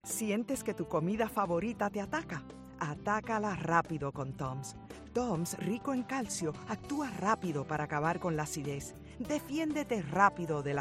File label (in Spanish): spanish voice over